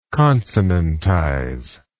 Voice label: "consonantize", English